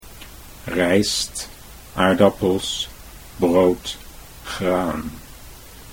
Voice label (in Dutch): "rijst aardappels", Dutch